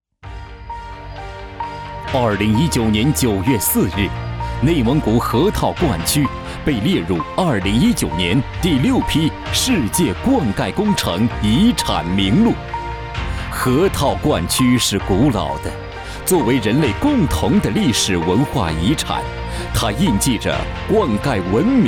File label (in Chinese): 宣传片